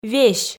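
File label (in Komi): "весь", Komi, female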